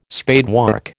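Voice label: "spadework", American English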